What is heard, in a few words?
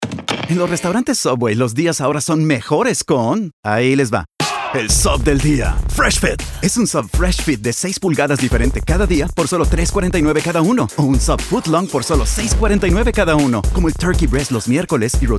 radio; Subway 'Sub del Día Fresh Fit'